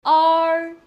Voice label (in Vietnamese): "er", tiếng trung; nguyên âm